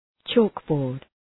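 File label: "chalkboard", English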